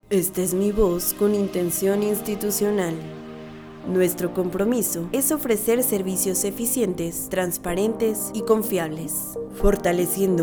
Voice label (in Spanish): Institucional Musicalizado